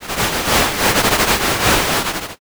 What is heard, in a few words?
whisper